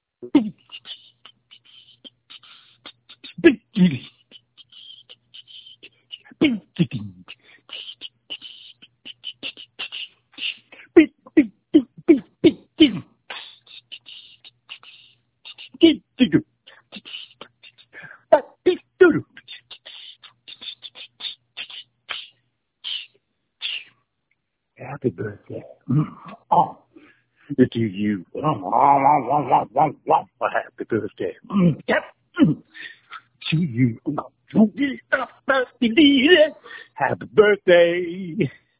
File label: voicemail